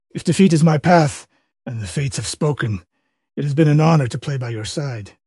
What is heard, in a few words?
upset; kage; fertig classic games